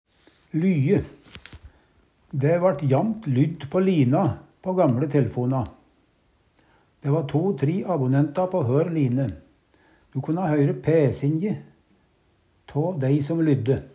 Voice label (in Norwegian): lye, Numedalsmål